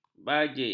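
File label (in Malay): "bajik", Malay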